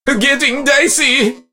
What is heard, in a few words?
meeple hurt; 奥利